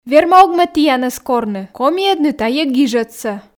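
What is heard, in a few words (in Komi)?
верма-ог ме тіянӧс корны комиӧдны тайӧ гижӧдсӧ, Komi, female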